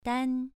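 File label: "dan" Mandarin, second tone, pronunciation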